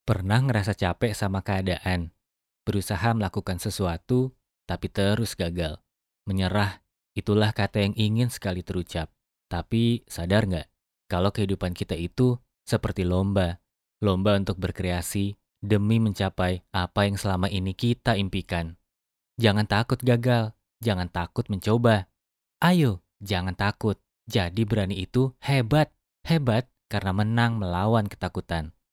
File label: male indonesian voice over character